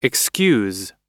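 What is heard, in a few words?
"excuse", English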